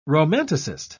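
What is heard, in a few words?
"romanticist", English, British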